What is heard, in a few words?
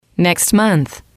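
next month, female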